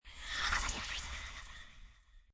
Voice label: Whisper Effects, Whispering, processed with effects, reverse, demonic, scary, intense, short; VoiceBox